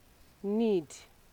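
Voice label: "need", Nigerian Pidgin, female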